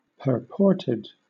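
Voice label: "purported", British English; pronunciations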